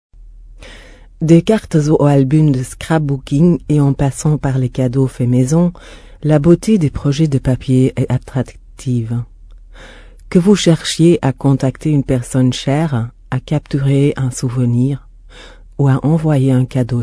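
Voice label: french female voice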